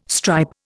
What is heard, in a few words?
"stripe", Indian